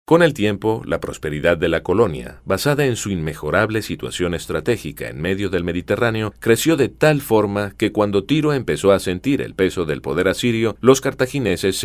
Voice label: locutor castellano neutro latin american neutral spanish